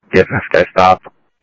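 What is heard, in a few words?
Lakes Sheriff Lake Patrol, scanner audio trunk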